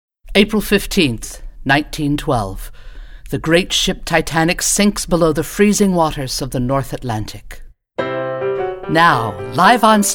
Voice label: radio spot